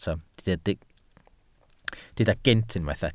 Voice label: "gynt", Welsh